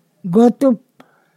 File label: "thunder", Ponosakan